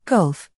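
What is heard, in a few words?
"g", British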